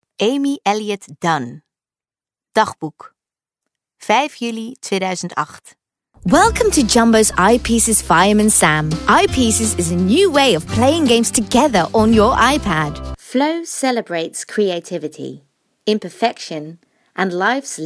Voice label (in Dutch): voicedemo